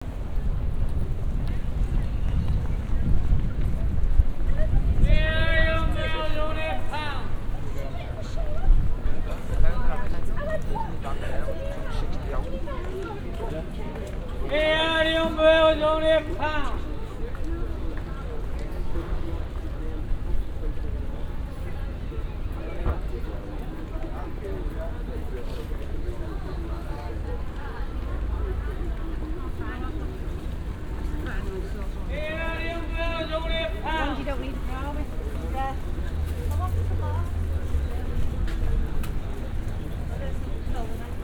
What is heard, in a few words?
Liverpool Umbrella Seller; Sound Scapes